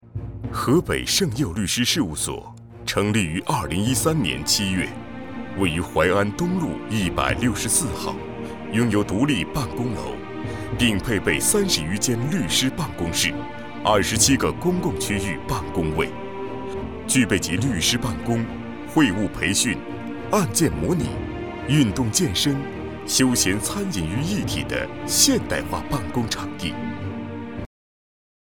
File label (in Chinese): 公司宣传律师事务所